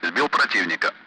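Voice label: Speech, Russian; Allied Flight; splash bandit; DCS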